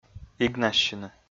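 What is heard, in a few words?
"игнассьыны", Komi-Zyrian, male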